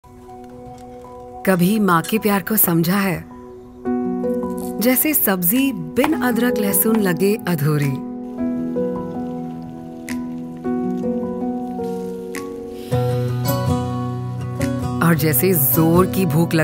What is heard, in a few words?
Hindi Commercial